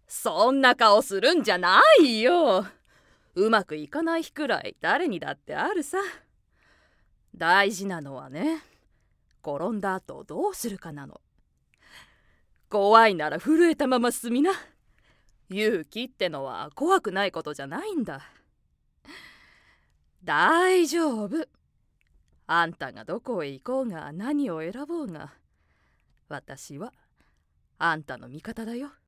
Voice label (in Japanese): 包容力のある母親